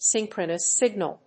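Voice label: "synchronous-signal", English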